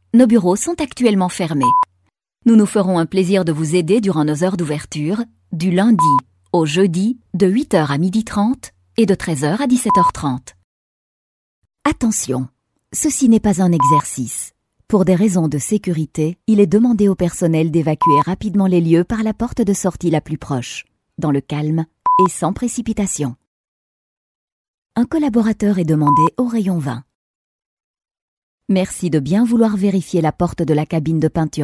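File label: French, Messaging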